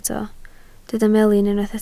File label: "melin", Welsh